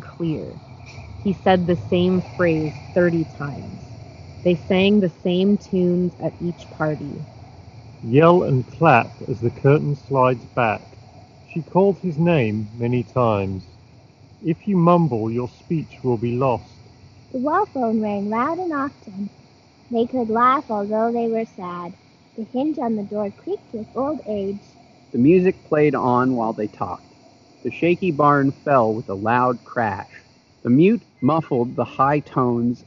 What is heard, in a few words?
Sony WH 1000XM3 Street microphone sample